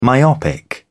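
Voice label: "myopic", British English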